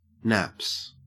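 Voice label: "naps", English, Australian accent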